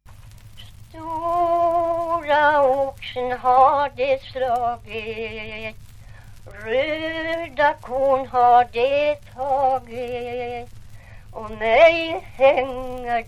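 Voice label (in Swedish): Ovansjö Gästrikland, svenska sagor dialekter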